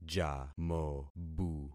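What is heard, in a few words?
"jamobu", concatenated syllables; Affixing experiment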